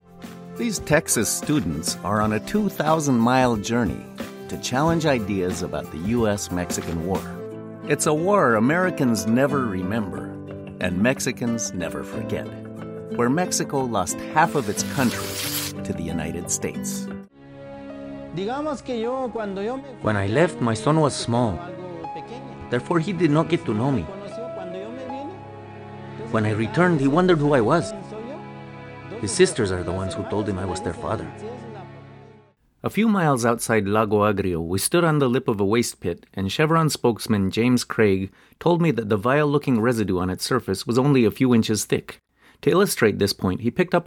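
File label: Documentary English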